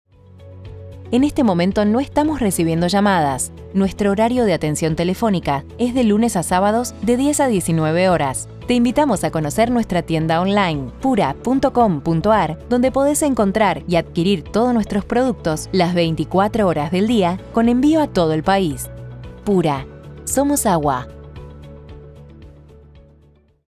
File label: IVR con musica